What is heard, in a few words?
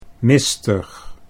"mistig", Dutch; 'misty, foggy'